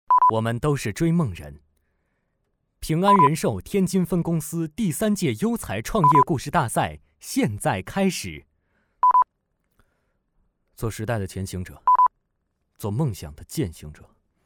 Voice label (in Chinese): 男男 试音